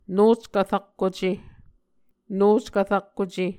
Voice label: "nockv rakkuce", Muscogee; Mvskoke Words with Voicing Consonants; Onvkv Ohhvyayices; A Dictionary of Creek/Muskogee; SIL International